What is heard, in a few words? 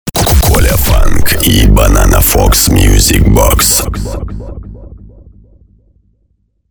DJ LINER